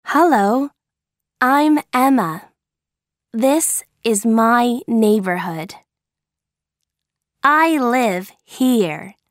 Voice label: Audio American English; Where I Live